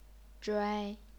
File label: "zhuai1", chinese pinyin